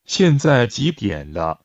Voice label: Mandarin Conversation